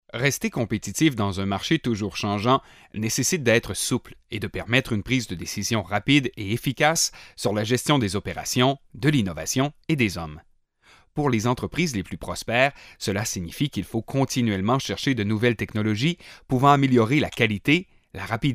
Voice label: E-Learn